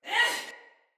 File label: Surprised, Female; Sinecure Soldier Female B